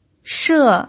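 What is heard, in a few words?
"she" Mandarin Chinese, fourth tone, pinyin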